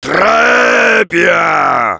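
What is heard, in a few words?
positive vocalization, French; Heavy; "Trrèèès bien !"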